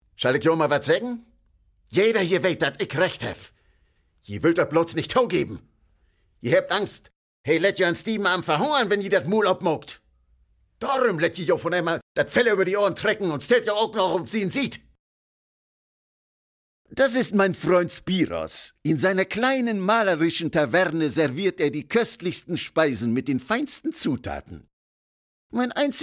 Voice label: dialekt; LOFT VOICES

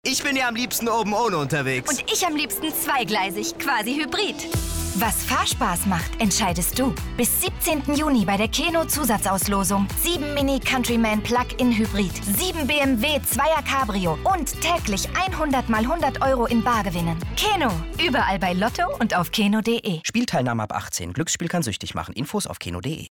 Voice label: Werbung nett; Keno; LOFT VOICES

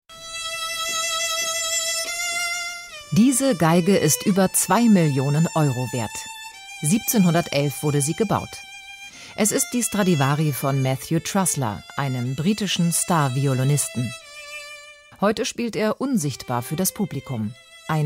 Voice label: kommentar

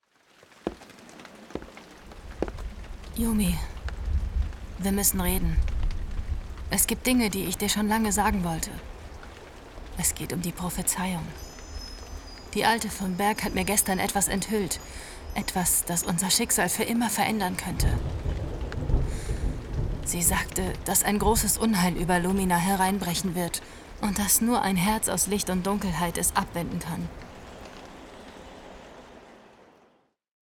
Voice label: Anime Charge ernst

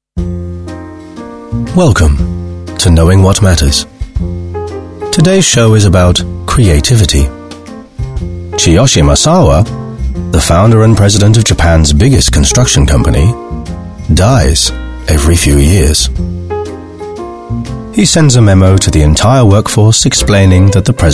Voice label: uk englisch

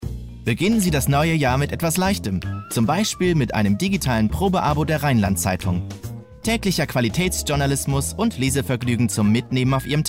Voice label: Sprach Werbung Zeitung

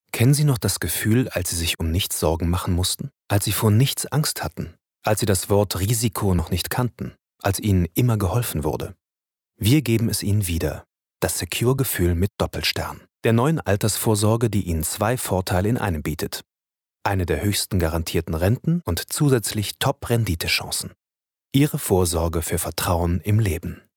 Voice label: werbung versicherung

